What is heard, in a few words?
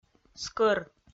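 "скӧр", Komi-Zyrian, female; kpv audio dict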